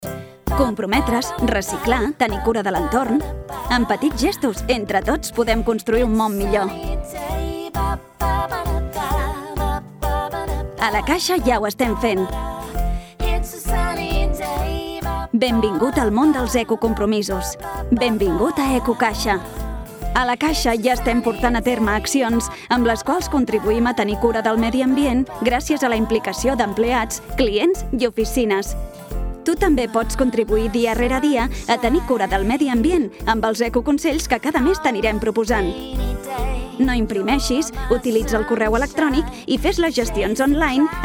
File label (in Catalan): Catala; Eco Caixa